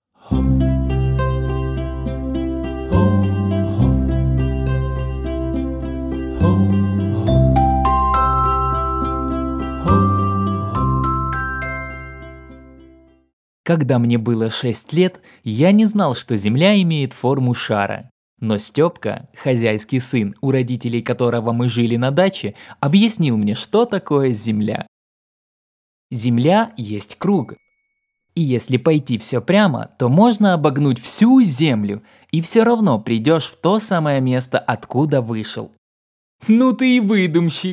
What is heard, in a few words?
russian audiobooks